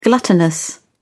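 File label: "gluttonous", English, British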